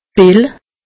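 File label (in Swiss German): "pill", Alsatian; medecine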